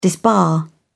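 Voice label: "disbar", British English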